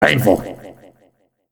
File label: soldier headshot, announcer soldier; open fortress